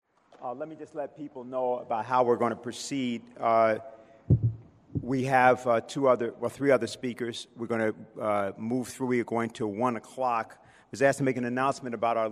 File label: Announcements